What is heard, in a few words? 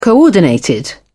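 "coordinated", British English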